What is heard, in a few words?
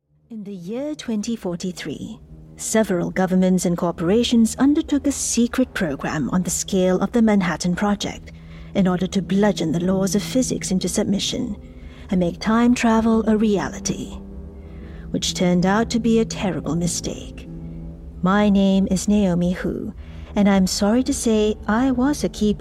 english singapore podcasts